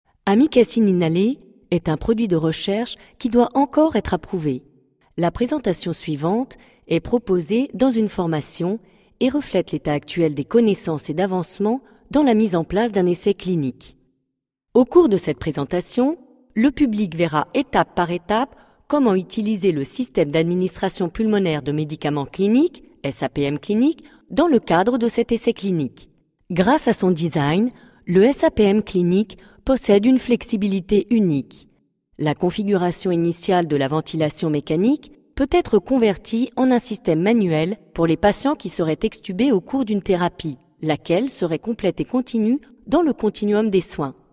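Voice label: French, female, elearning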